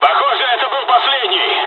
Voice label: dialog, dialogs russian; War Thunder

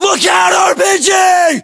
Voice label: threat rpg, US

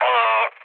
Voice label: damaged pilot, speech cze, mood low; War Thunder

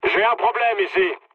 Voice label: aircraft damaged mood low, speech fr; War Thunder

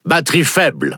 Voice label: Speech Alarms Sub Battery Low, FR; World Of Warships

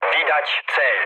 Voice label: near waypoint mood med, speech pol; War Thunder

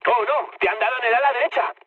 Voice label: right wing, mood med, speech; War Thunder

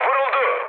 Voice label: aircraft destroyed, mood med, speech tur

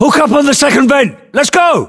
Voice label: voiceovers, US; english

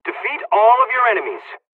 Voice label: tactical fight, dialogs serbian; War Thunder

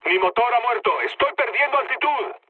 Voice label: damaged engine, mood low, speech; War Thunder

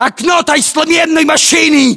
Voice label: landmark near car wrecked, ru; localized english

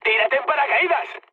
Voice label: bailout, mood high, speech; War Thunder

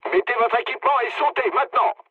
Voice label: bailout mood low, speech fr; War Thunder

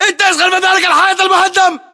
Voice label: order cover wall broken, battle chatter, english

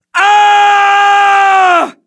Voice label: Elder Charge, english voiceovers

